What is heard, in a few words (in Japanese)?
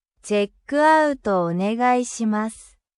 "チェックアウト", traveljapanese